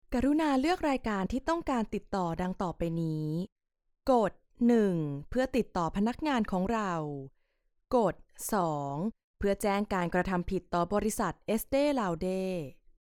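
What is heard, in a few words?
Thai general; GoLocalise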